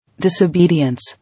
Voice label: "Disobedience", English

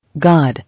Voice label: "God", English

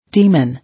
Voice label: "Demon", English

